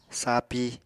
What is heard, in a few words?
"sapi", Apatani